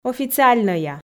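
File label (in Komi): "официальнӧя", Komi, female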